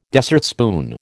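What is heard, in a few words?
"dessertspoon", American English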